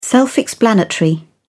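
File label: "self explanatory", British English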